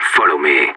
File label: radio follow me; Counter Strike; CS:GO; separatist